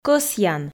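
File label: "кӧсъян", Komi, female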